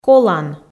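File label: "колан", Komi, female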